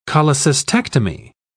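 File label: "cholecystectomy", British English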